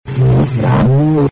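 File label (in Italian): "gettan", Italian dialect; Gettan vie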